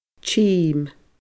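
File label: "tim", Scottish Gaelic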